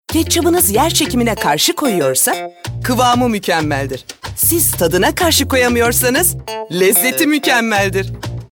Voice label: Komik

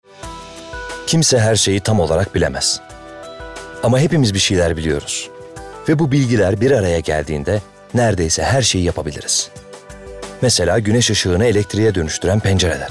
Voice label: REKLAM

